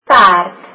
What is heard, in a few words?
horse, afrikaans